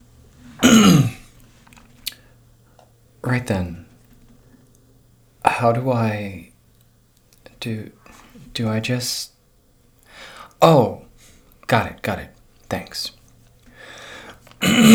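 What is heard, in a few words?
English; moul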